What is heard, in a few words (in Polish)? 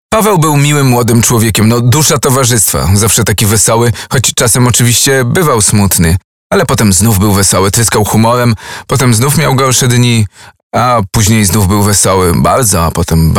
spot radiowy; 2012 kampania mezczyzni tez placza